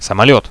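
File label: airplane, radio, Russian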